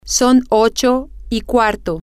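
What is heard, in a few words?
its a quarter past eight, Spanish, female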